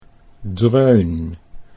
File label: "dreun", Dutch